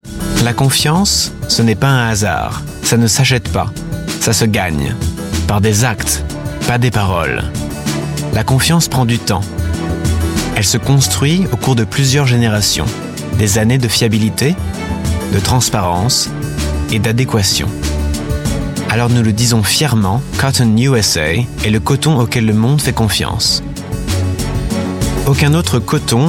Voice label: french voice over male narrator